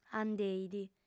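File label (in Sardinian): "andeit", lingua sarda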